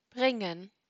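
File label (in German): "bringen", German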